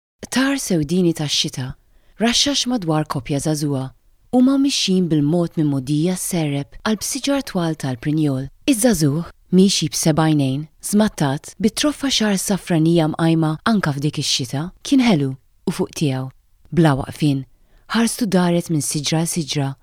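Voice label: Maltese, female, narration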